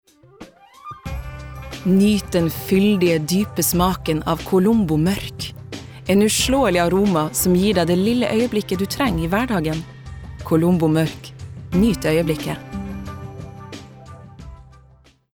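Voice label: Norwegian soft sell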